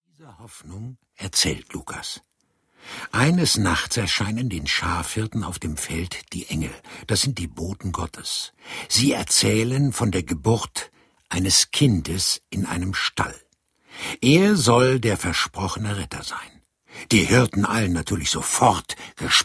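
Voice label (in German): Erzähler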